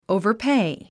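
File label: "overpay", English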